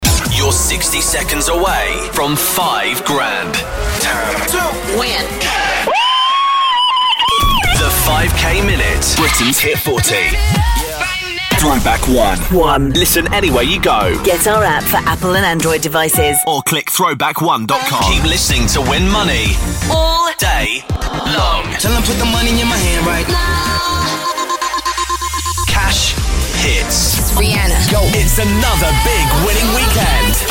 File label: Imaging Voiceover; radio station sound, youtube branding, podcast intros